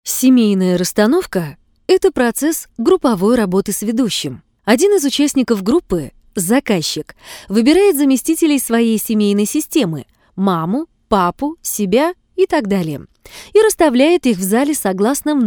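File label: story, Russian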